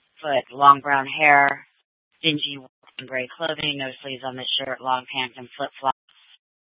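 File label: Scottsdale Police Department South Dispatch; Regional Wireless Cooperative (RWC) - Simulcast H- Scottsdale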